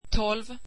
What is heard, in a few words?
swedish twelve